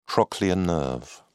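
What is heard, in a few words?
"trochlear nerve", British English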